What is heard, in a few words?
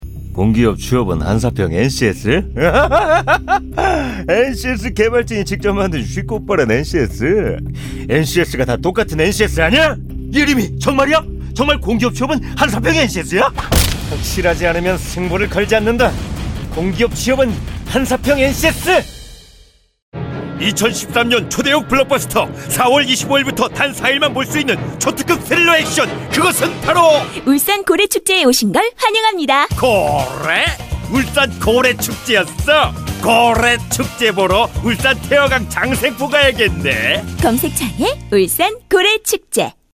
korean cartoon animation